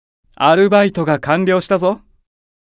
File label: Job Notification Voice; Diavolo